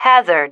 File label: "hazard", female